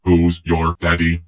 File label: heavy gloat; Dystopia; vox new